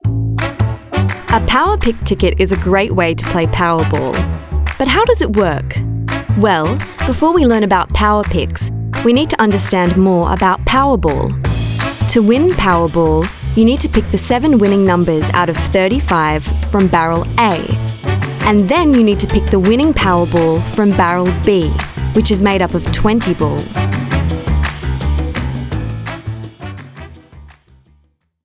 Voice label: Corporate